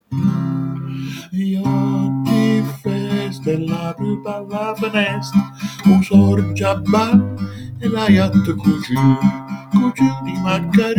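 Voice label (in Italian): "pupa", Manfredonia dialect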